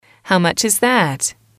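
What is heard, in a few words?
"how much is that", English, female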